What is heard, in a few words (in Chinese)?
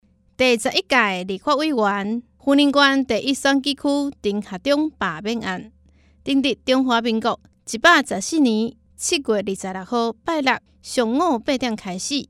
台語; 第11屆立法委員 (雲林縣第1選舉區) 丁學忠罷免案前言; 有聲公報